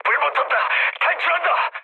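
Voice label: Korean on fire mood low; War Thunder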